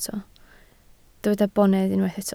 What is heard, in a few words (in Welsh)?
"bonedd", Welsh